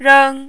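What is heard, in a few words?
"reng", Mandarin, first tone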